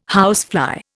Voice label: "housefly", Indian

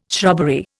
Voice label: "shrubbery", Indian

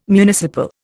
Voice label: "municipal", Indian

